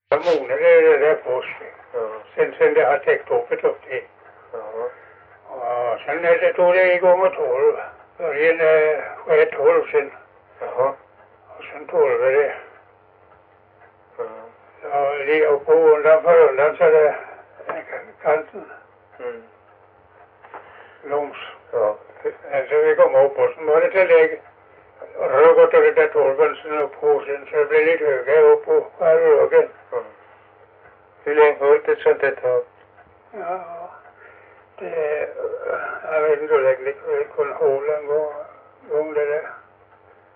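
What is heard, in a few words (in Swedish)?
Stenåsa Öland, svenska sagor dialekter; Folkminnen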